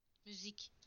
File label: "music", TU; PictureDictionary